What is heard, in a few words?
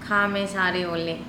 "kHa me sare ole", andamanese